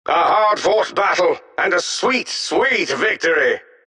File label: announcer victory; dlc defensegrid